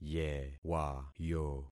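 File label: "yewayo", concatenated syllables; Affixing experiment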